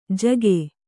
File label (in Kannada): "ಝಗ", kannada